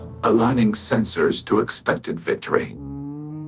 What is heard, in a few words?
happy, speech; fertig classic games; cybro